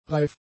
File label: "reif", German; adjectives